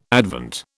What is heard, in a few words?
"Advent", British